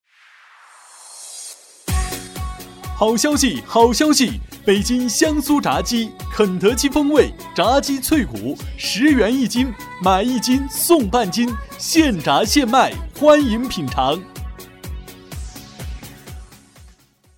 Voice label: 促销活力大气

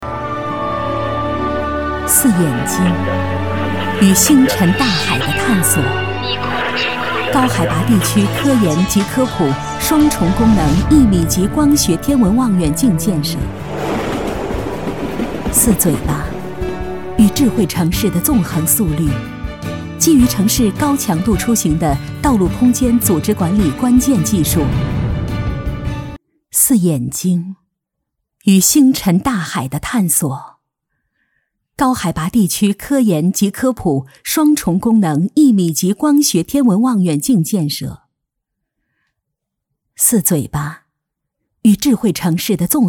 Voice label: 科技解说; 科技解说大国重器

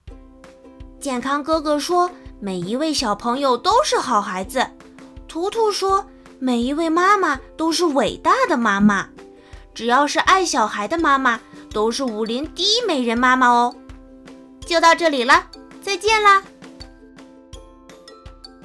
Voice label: 角色男童

